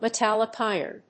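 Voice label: "metallic iron", English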